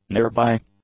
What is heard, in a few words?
"nearby", english; adjectives